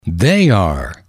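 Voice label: "they are temporary", English, male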